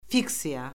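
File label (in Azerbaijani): "fiksiya", Azerbaijani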